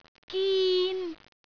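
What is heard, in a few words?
"gin1", Cantonese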